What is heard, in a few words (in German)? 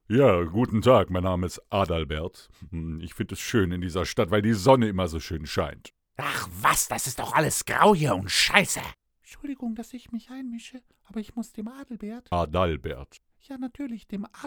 cartoon; Adalbert; der Hörverlag / hr2 Kultur - Der geheimnisvolle Briefschreiber - Frühe Erzählungen